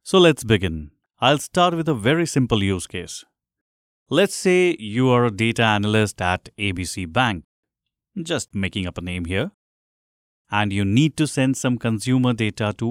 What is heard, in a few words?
english indian e-learning